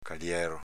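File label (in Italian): "calièro" Veneto dialect; s.m. paiolo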